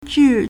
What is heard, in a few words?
"zhi4", Chinese